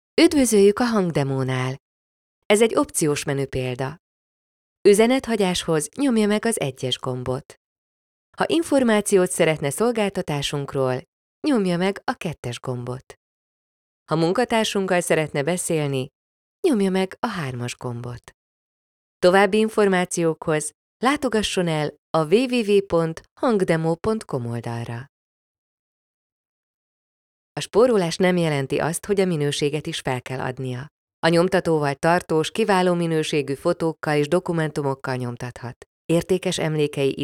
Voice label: HU F narration